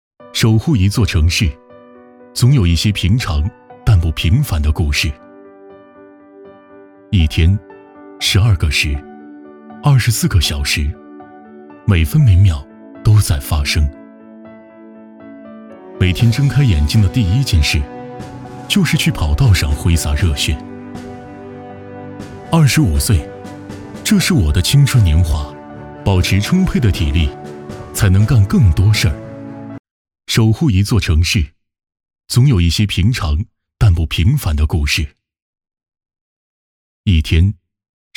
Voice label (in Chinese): 男国语, 旁白; 守护一座城市